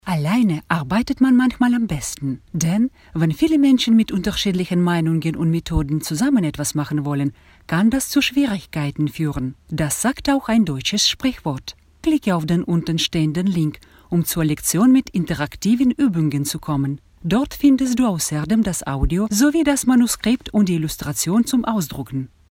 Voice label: Deutsch